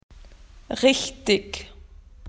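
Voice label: "correct", Australian English; PictureDictionary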